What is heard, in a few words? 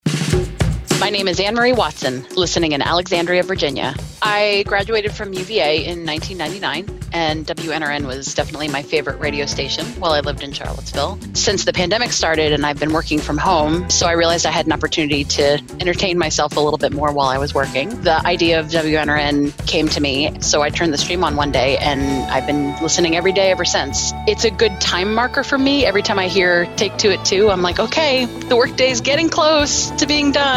Fund Drive Testimonial; WNRN